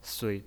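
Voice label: "sui", Mandarin Chinese pinyin, neutral tone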